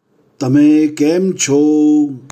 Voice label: Gujarati How are you; Greetings